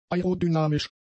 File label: "aerodynamisch", german; adjectives